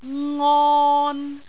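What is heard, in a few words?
"ngon3", Cantonese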